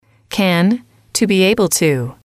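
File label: to be able to can, English, female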